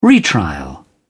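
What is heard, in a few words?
"retrial", British English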